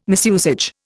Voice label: "misusage", Indian